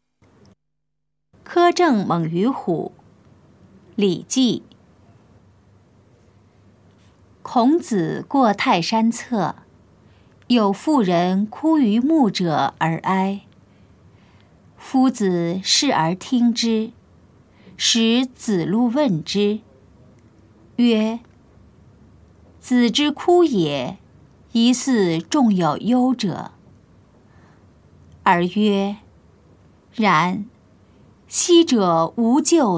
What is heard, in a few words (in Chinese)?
putonghua; 苛政猛於虎; 普通話